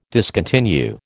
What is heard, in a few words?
"discontinue", American